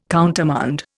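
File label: "countermand", Indian English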